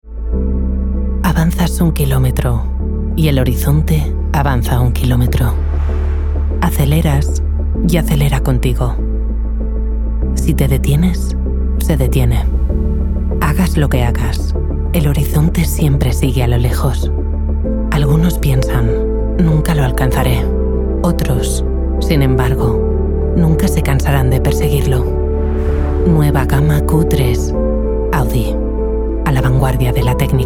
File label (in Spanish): SPOT SOFISTICADO ASPIRACIONAL